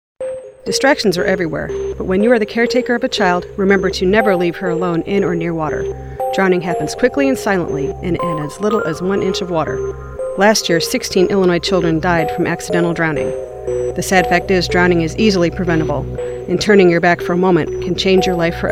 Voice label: public service announcements; drowning prevention; DCFS